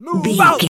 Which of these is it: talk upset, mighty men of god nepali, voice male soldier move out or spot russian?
voice male soldier move out